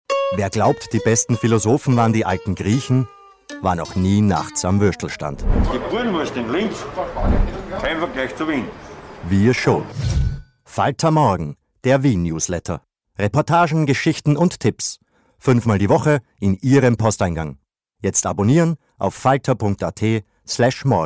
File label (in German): WERBUNG; FALTER